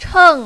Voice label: "cheng4", mandarin, female